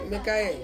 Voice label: "mekae", Ansus